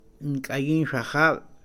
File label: "zapatero", Mam; INALI